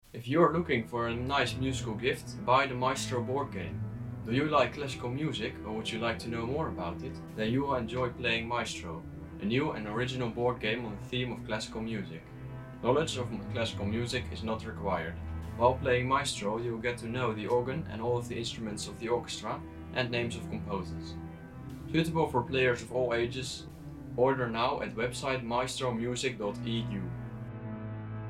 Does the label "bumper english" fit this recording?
Yes